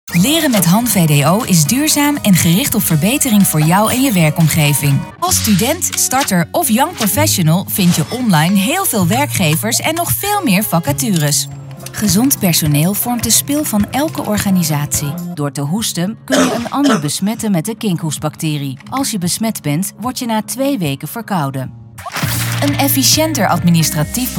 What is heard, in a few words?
Corporate